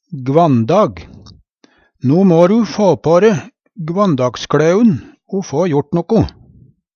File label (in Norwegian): gvandag, Numedalsmål; No må du få på de gvandagskLæun o få gjort noko.